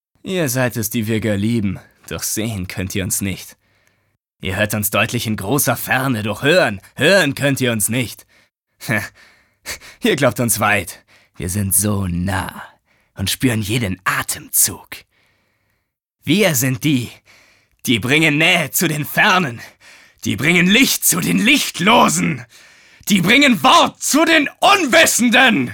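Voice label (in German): aggressiv, Sprecher, Männer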